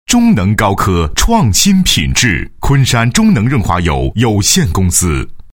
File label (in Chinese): 男 广告 润滑油, 国语男声配音 温情人物专题; 中能高科